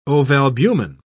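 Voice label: "ovalbumin", British English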